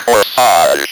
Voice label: "CORSAGE", Speak & Spell